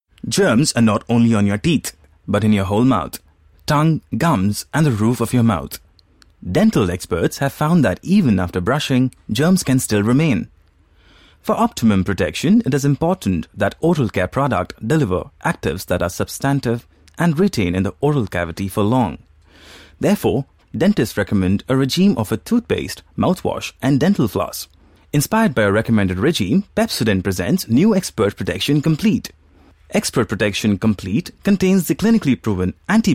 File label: Explainer; Pepsodent